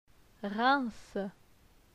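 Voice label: "Riems", French; phonetique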